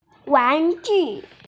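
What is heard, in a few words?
"wanju", Mandarin Chinese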